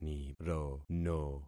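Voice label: "nirono", concatenated syllables; Affixing experiment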